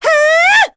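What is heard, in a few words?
Vox Casting, English; Chrisha; kingsraid; voices heroes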